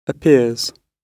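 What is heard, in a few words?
"appears" British English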